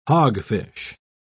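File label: "hogfish", British English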